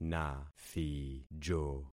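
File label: "nathijo", concatenated syllables; Affixing experiment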